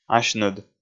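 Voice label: "асьныд", Komi, male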